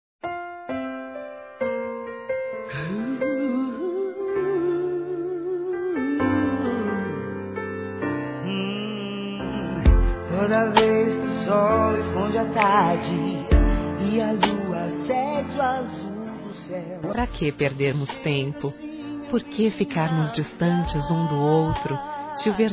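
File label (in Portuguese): EU TE AMO, Feminino; Telemensagens; Românticas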